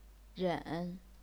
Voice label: "ren3", chinese pinyin